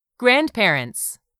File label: "grandparents", English; 3000 words